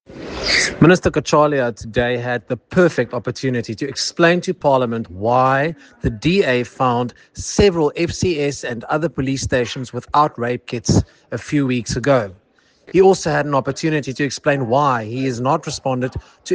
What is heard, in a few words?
English